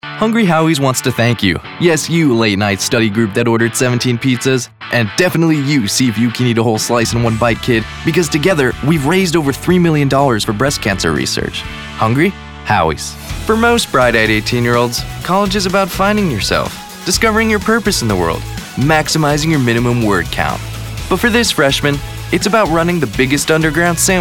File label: VO commercial